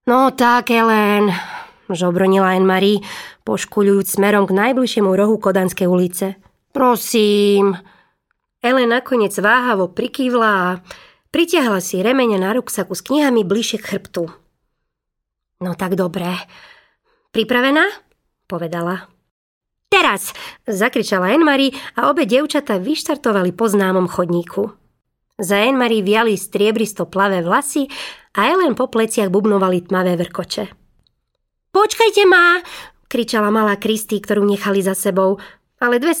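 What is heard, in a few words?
Slovak, Narrative; GoLocalise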